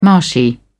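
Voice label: "marshy", British English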